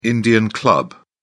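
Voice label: "indian club", British English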